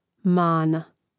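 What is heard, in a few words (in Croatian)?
"mana", Croatian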